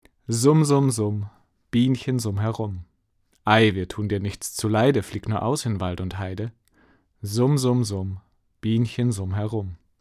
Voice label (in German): Beyerdynamic M 90 Pro Sprache 20cm